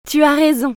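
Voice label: "tu as raison", French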